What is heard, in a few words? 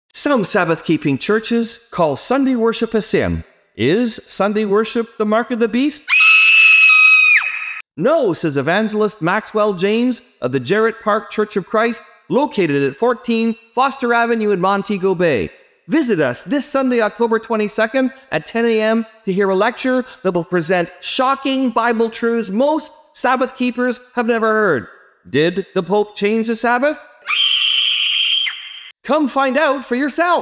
radio advertizing; Jamaica; Mello FM88